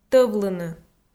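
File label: "тӧвлыны", Komi, female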